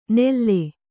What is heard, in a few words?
"ನೆಲ್ಲೆ", kannada